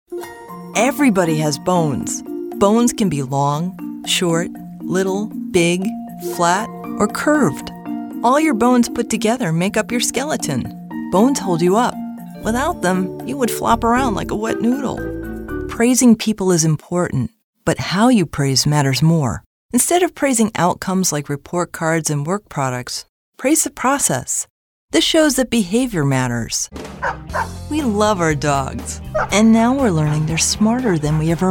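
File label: narration, female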